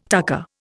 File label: "tucker", Indian